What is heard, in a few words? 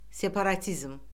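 "separatizm", Azerbaijani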